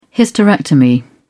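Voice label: "hysterectomy", British English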